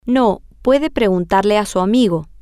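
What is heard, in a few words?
No she can ask her friend, Spanish, female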